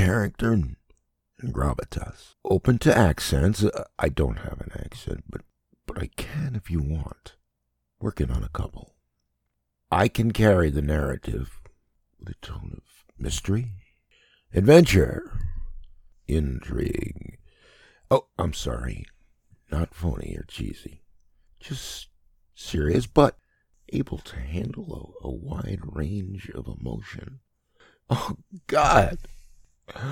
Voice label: Male Narrator; Jesus Scroll